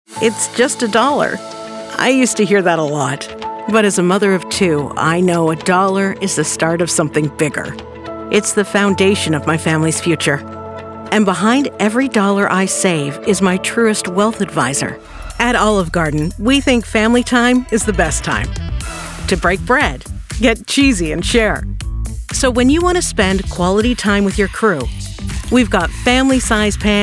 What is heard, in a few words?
Commercial